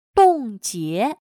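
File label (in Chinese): "冻结" Mandarin Chinese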